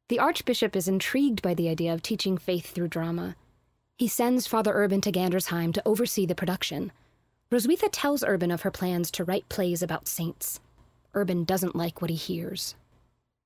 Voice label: Narration